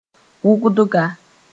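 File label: "wʋgdga", Mooré; grammatical element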